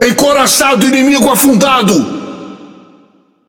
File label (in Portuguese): Speech Destroy Enemy Battleship, PT BR; World Of Warships